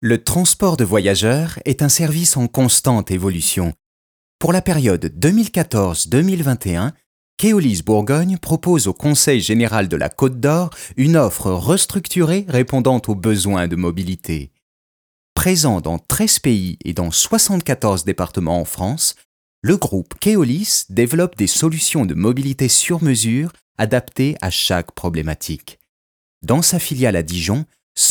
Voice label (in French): INSTITUTIONNEL; KEOLYS